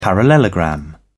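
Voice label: "parallelogram", British English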